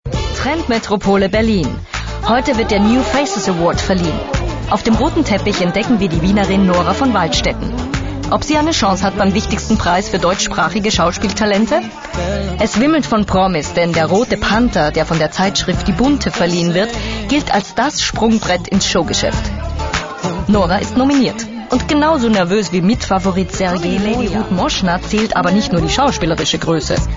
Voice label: cheerful energetic